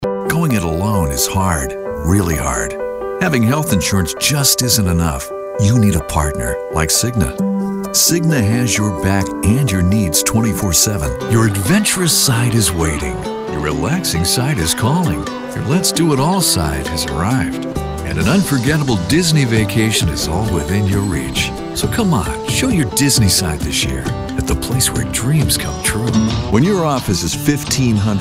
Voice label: Commercials